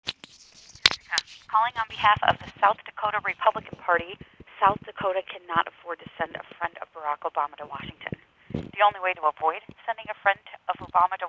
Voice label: voicemail; SDGOP